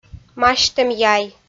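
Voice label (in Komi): "масьтӧм яй", Komi-Zyrian, female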